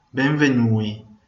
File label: welcome, venetian